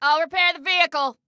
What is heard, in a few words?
Self Repair Vehicle, Female